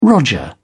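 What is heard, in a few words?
"roger", British English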